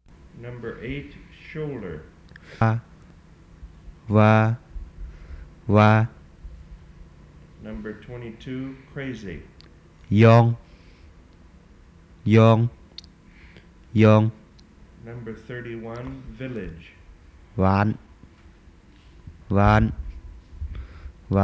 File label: Tai Nua; Vaan Tung Tong3